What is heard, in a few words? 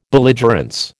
"belligerence", American